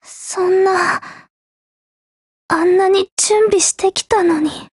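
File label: break, Japanese; HK33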